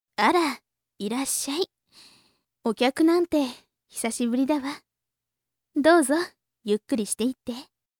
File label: woman